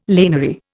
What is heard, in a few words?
"laniary", Indian